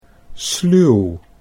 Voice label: "sluw", Dutch

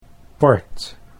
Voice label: "port", Dutch

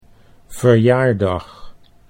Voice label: "verjaardag", Dutch

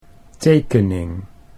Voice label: "tekening", Dutch

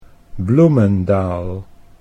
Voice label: "Bloemendaal", Dutch

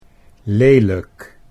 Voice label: "lelijk", Dutch

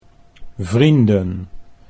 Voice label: "vrienden", Dutch; 'friends'

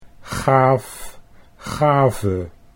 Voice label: "gaaf gave", Dutch

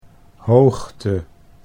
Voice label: "hoogte", Dutch

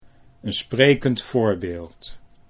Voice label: sprekend voorbeeld, Dutch

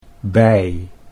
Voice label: "bij", Dutch

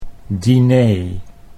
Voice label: "diner", Dutch

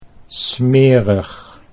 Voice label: "smerig", Dutch

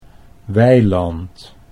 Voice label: "weiland", Dutch

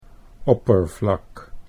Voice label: "oppervlak", Dutch

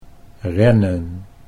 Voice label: "rennen", Dutch

